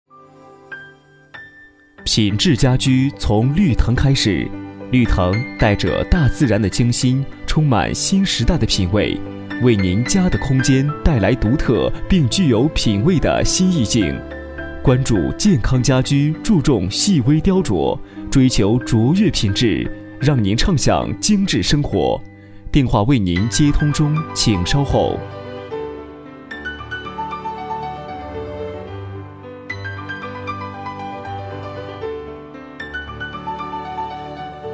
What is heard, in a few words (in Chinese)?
男 家居公司